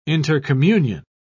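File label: "intercommunion", English, British English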